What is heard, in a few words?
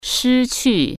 "lose", Chinese